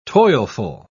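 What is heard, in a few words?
"toilful", British English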